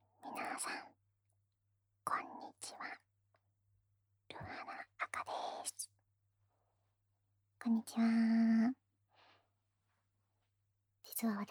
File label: free talk; フリートーク; 【純愛レイプ】乱暴えっちに憧れる地味子ちゃんが地声のウィスパーボイスでこっそりしつこくお仕置きおねだりしてくるあまあま青春日誌♪【KU100】